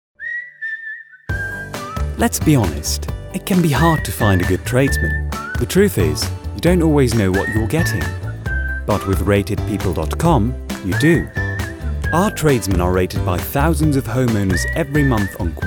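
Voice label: Dutch, Accented English; voice demos; GoLocalise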